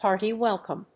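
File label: "hearty welcome", English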